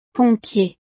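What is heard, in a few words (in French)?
"pompier", French; bombero; profesiones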